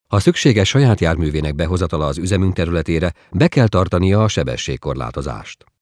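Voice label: Male Hungarian IVR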